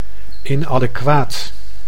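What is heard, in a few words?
"inadequaat", Dutch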